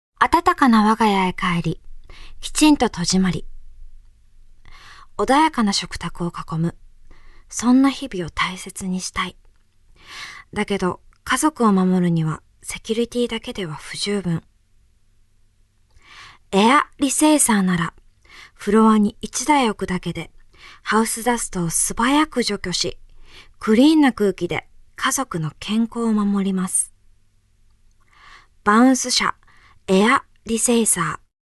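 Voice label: Narration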